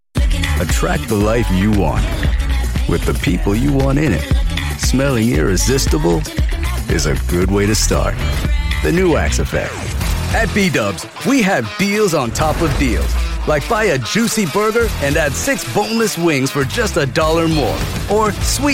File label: Commercial